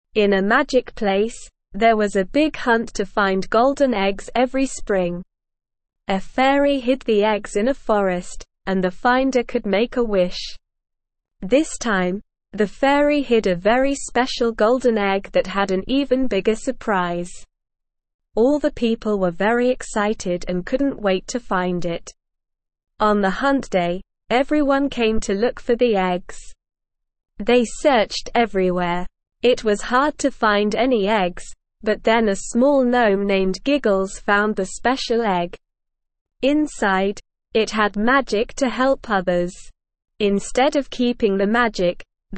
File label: ESL Short Stories for Kids Beginner SLOW Reading; The Golden Egg Hunt